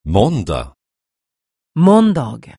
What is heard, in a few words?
"mond", Swedish